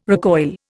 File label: "recoil", Indian